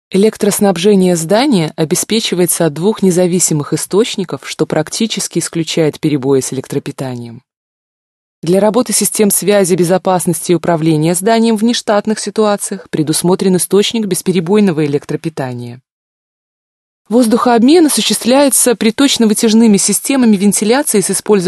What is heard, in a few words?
russian female